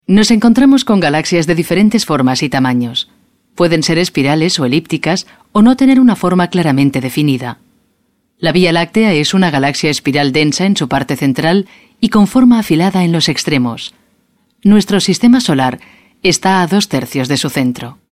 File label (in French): Espagnol; casting de voix-off; taurus-studio